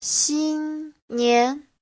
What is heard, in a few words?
"xin1nian2", Chinese